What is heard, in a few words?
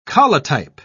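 "collotype", British English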